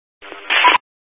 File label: Highway Patrol, Phoenix Metro East Area, scanner; DPS